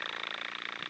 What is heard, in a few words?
r trill; espeak ng